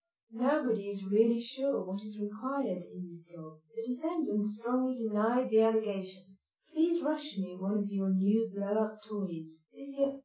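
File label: female speech; SiSEC10; underdetermined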